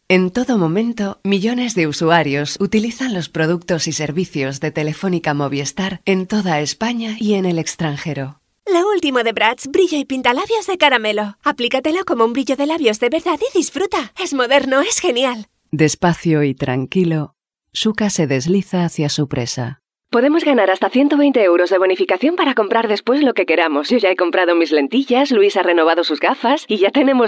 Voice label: Spanish

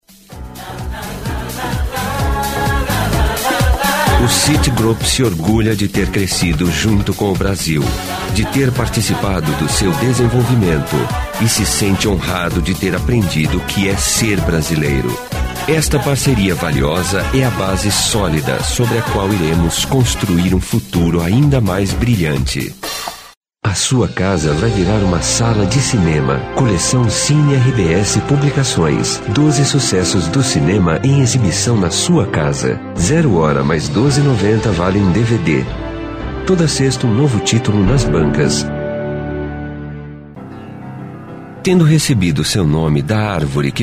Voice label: Portuguese

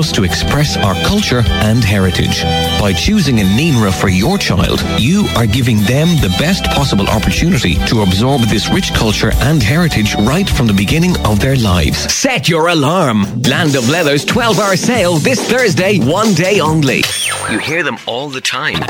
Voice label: Irish

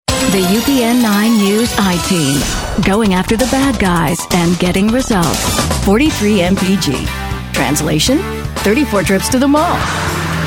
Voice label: Canadian

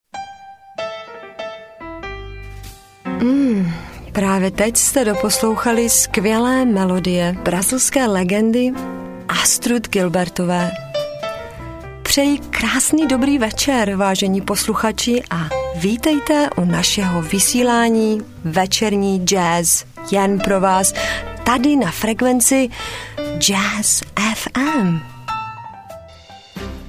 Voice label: Czech

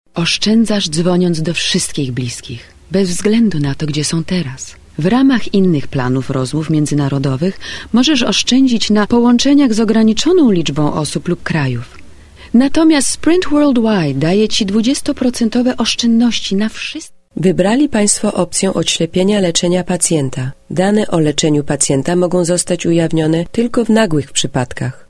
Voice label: Polish

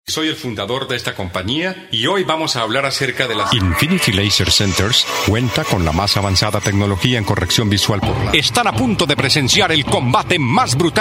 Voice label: Spanish

